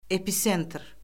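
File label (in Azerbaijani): "episentr", Azerbaijani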